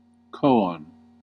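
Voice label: "koan", American English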